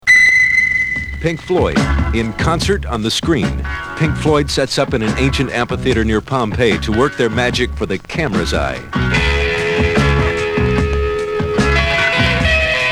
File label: Radio Advert